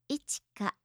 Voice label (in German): "ichika", japanische maedchennamen